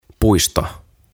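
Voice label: "Puisto", "Park", Finnish, English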